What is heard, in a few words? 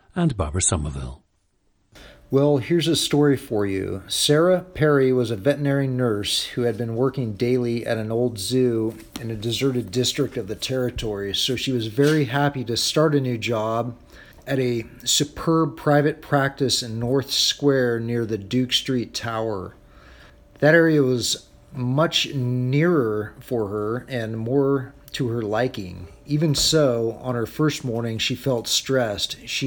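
oregon, International Dialects of English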